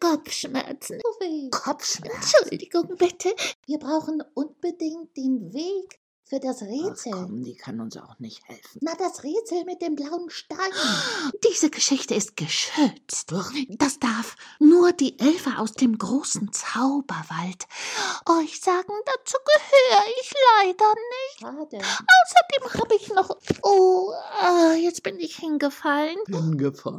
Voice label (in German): Erzaehlung kl. Raupe kuerzer